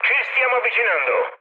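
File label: Italian near waypoint mood high, speech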